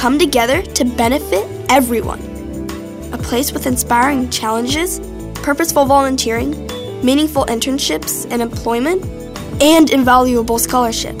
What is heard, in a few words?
It Takes A Village, storyteller